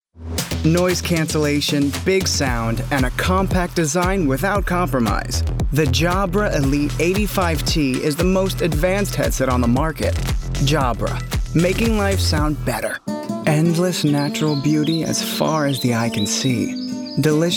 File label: Commercial